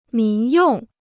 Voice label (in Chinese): "民用", Chinese